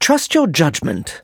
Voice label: neutral; opal; en